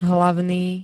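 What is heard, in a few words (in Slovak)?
"hlavný", Slovak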